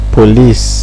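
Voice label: "police", Nigerian Pidgin, male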